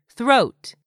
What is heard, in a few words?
"throat", English; 3000 words